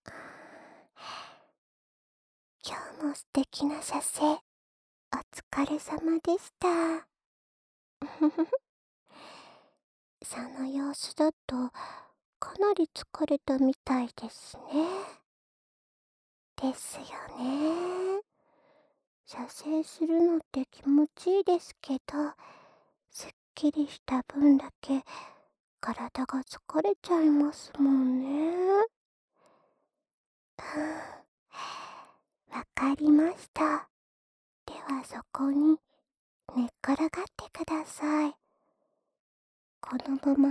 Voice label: 安眠誘導音聲

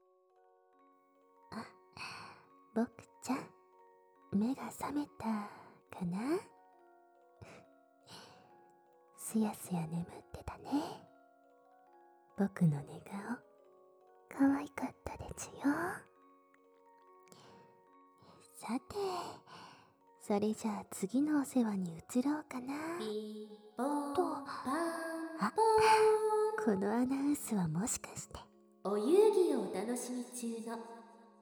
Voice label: 有BGM; 甘園房由香莉媽媽2; SUPER撒嬌TIME 潤滑液騎乘位性交

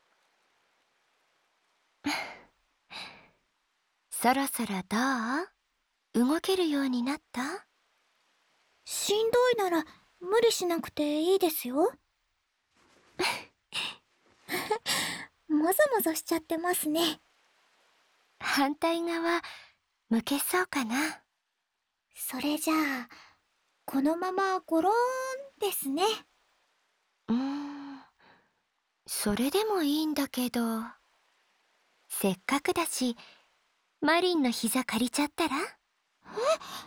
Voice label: 膝枕交换～真凛给你掏耳朵, ASMR

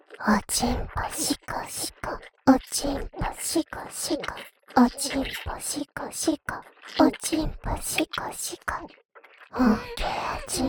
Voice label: 緊貼低語服侍 深喉嚨口交; 自慰輔助用特典音聲

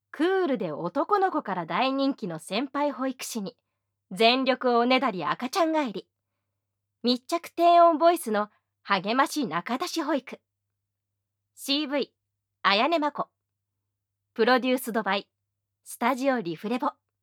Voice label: 标题 朗读; 无SE

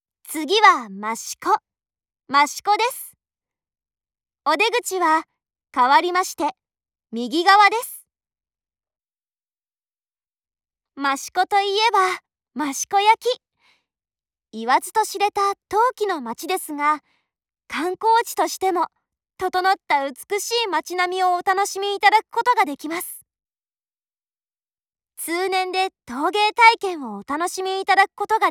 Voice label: 西田井站发车后 广播, 车内广播; 万冈铁路车内广播；茂木方向

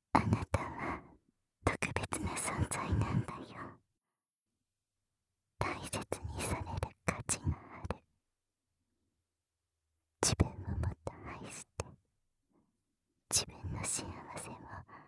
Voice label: 全肯定轻语音声

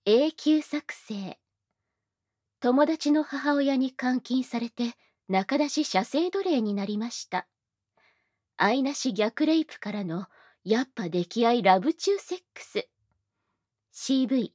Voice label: 标题 朗读; 无SE

